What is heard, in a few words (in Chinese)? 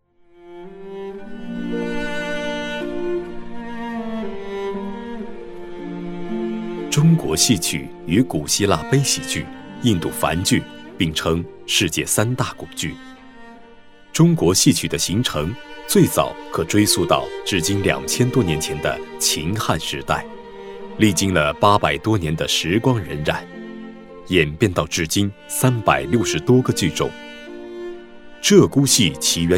男, 纪录片, 娓娓道来, 叙述感; 鹧鸪戏